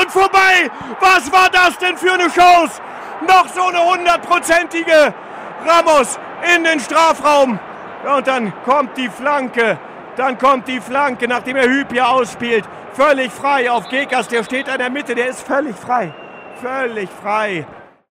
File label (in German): Berlin Fussballkommentator